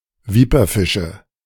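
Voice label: "Viperfische", German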